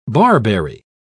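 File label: "barberry", British English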